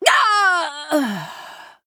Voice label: Female Night Elf Death